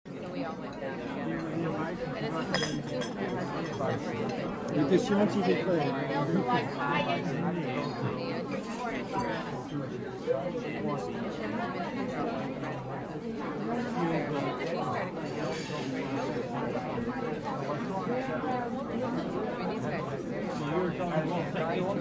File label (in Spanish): gente hablando